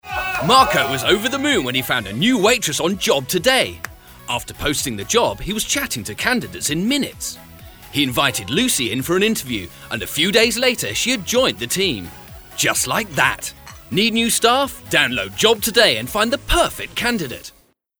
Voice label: male british english job comercial; ideasonora

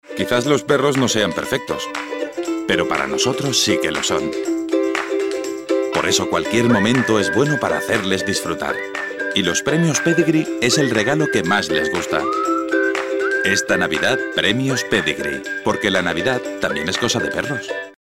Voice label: male spanish pet comercial; ideasonora

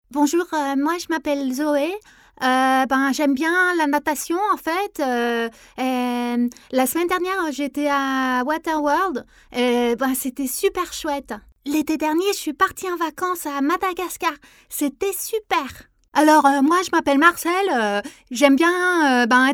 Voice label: female french kids comercial; ideasonora

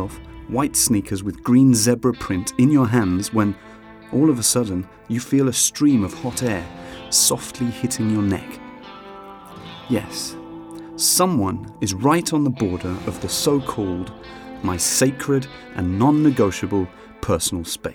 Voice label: male british english outlet comercial; ideasonora

